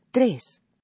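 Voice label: "tres", Spanish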